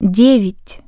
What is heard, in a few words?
"0", Russian Human Voice Tone